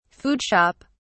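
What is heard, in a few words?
"food shop", British English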